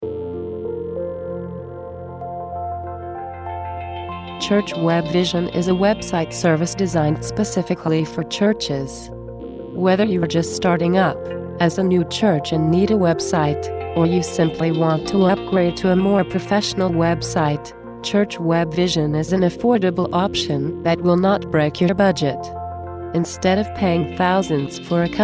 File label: promo; church